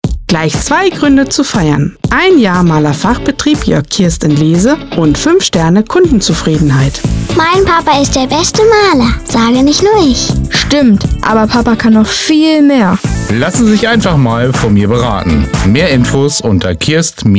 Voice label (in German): Werbespot Radio